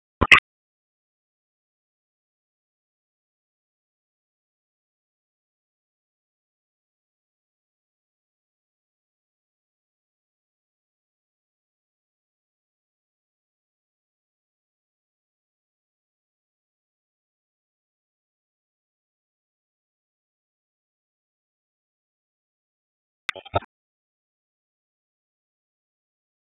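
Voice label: Raleigh Police Southwest District Tactical, p25; wake p25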